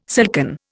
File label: "silken", Indian